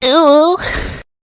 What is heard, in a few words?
UwU Sound Effect, male version